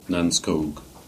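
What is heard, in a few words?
"Nancekuke", Cornish; place-names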